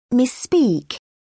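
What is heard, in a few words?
"misspeak", English, British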